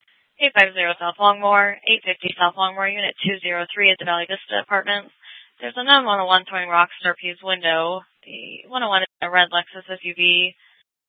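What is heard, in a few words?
police dispatch, scanner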